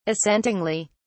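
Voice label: "assentingly", British English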